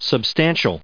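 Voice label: "substantial", US English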